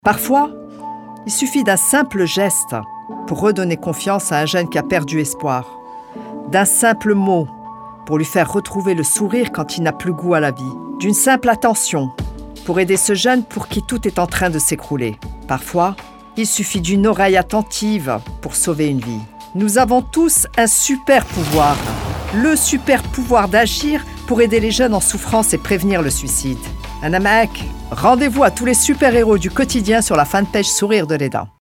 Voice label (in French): Radio, Femme